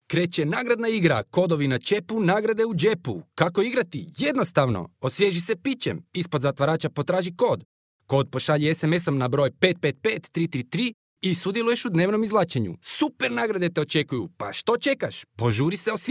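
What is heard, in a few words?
Croatian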